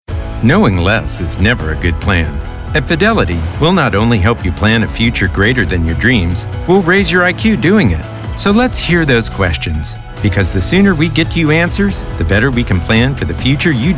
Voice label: vo commercial